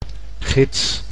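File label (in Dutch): "gids", Dutch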